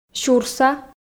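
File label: "сюрса", Komi, female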